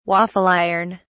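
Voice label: "waffle iron", British English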